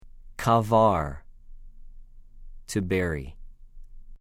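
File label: "קָבַר", Hebrew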